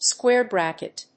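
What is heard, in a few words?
"square bracket", English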